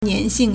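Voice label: "nian2xing4", Mandarin Chinese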